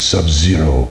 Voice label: "subzero", announce; Names